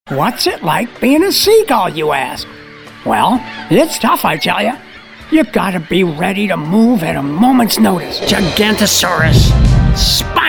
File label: Characters Animation